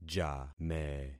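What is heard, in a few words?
"jame", concatenated syllables; Affixing experiment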